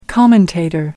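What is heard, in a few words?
"Commentator", English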